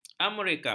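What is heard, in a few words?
"amerika", Malay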